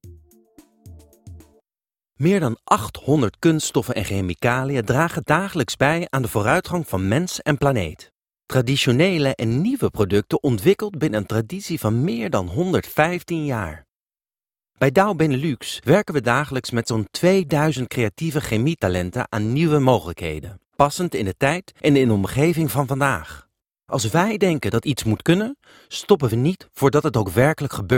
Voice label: Voice Over NL; DowChemical